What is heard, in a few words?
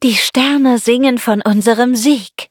battle de